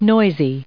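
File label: "noisy", English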